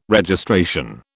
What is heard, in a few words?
"Registration", British